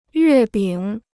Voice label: "月饼", Chinese

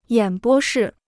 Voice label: "演播室", Chinese

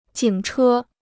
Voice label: "警车", Chinese

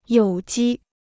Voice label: "有机", Chinese

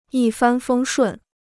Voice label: "一帆风顺", Chinese

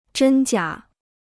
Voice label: "真假", Chinese

